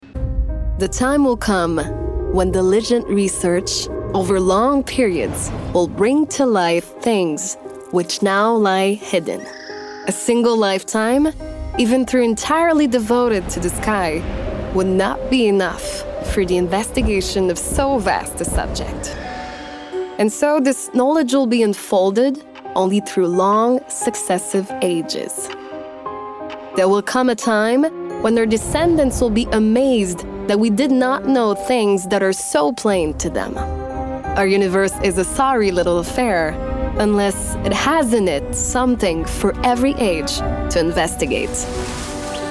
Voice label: English